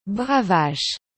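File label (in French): "bravache", French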